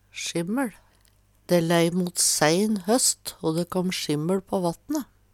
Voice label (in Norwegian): "sjimmeL", Numedalsmål